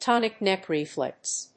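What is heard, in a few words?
"tonic neck reflex", English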